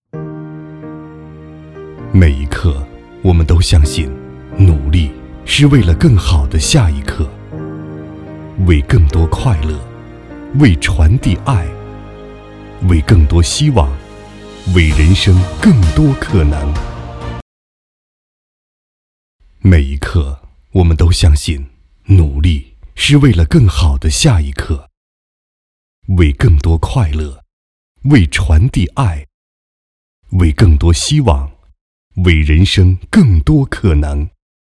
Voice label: 国语男 宣传; 中国银行

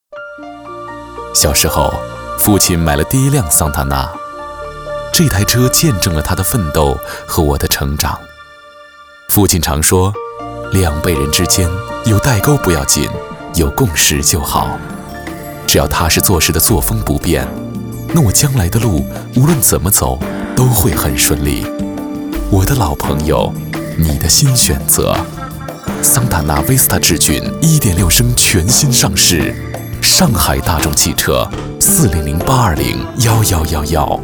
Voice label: 国语男, 真情自述类; 桑塔纳

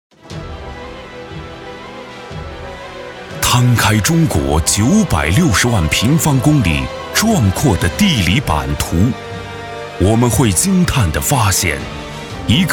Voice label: 国语男-【大气专题】; 黄河魅力新城

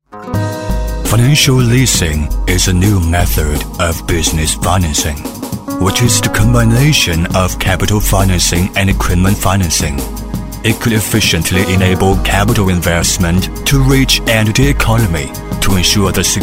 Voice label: 英语男 外国大片感觉

